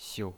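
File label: "xiu", pinyin voice, neutral tone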